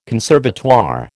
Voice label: "conservatoire", American